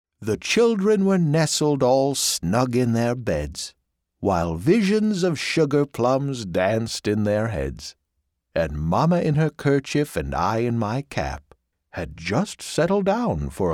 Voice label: Holiday Sing Song, a more melodic storytelling style; holiday-themed advertising; Rankin/Bass